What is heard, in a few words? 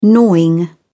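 "gnawing", British English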